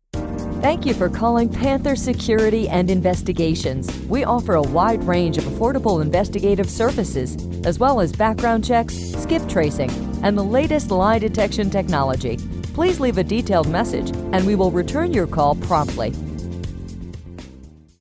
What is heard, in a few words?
Security IVR; Panther